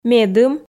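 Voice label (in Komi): "медым", Komi, female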